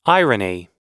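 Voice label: "irony", English; oxford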